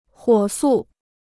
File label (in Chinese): "火速", Chinese